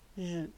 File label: "snake", naxi